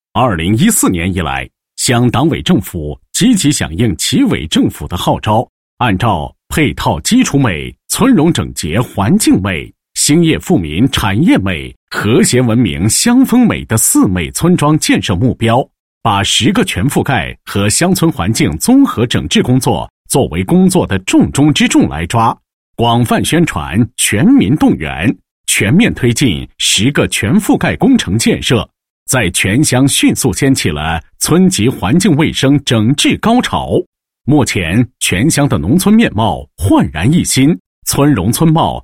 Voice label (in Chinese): 男 工程概述; 某乡“十个全覆盖”工程建设